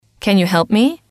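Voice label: "can you help me", English, female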